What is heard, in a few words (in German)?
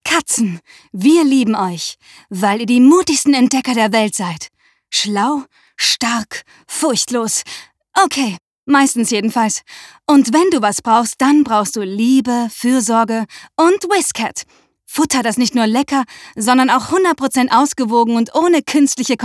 werbung; whiscat